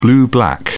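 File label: "blue-black", British English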